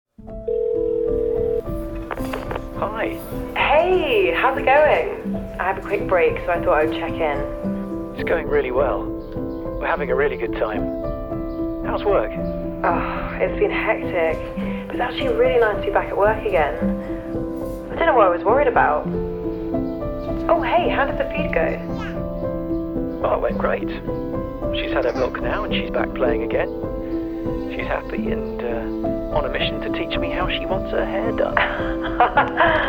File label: short film voice over young UK male voice over artist; Aptamil Back to Work